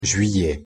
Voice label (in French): pronunciation fr "juillet"